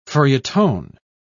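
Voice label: "feuilleton", English, British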